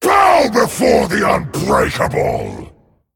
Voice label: Male Dragon Greetings; en